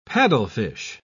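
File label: "paddlefish", British English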